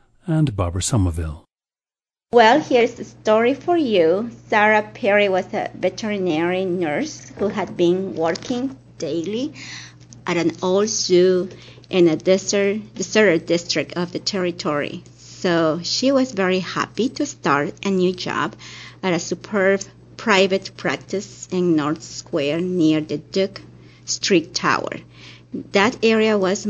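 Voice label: peru, dialects of English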